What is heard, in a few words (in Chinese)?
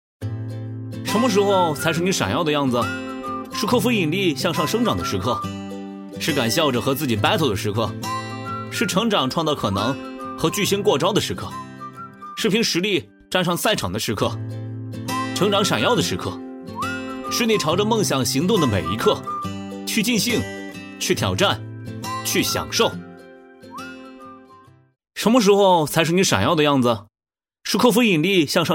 男 年轻素人; 素人风格男声; 钙尔奇